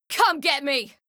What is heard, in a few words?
Female; Skyrim Special Edition; Dawnguard Beleval